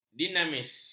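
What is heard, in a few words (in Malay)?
"dinamis", Malay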